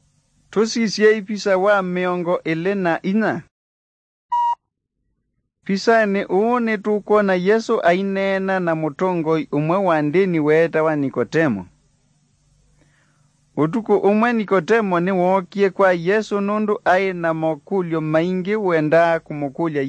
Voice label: kikamba drama; good news; bible